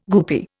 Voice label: "goopy", Indian

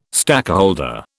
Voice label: "stakeholder", British

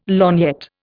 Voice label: "lorgnette", Indian

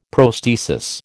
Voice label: "prosthesis", American

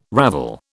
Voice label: "ravel", British

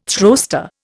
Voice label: "throwster", Indian

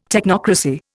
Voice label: "technocracy", Indian

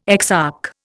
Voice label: "exarch", Indian

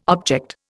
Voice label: "object", Indian

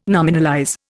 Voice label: "nominalize", Indian

